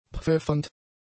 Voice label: "pfeffernd", German; adjectives